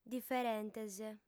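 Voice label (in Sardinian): "diferentes", female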